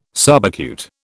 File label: "subacute", British